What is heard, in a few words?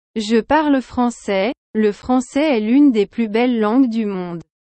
french; زیباترین زبان های دنیا از نظر آوا، تلفظ و رسم الخط